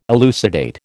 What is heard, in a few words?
"elucidate", American